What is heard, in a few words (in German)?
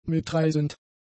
"mitreisend", German; adjectives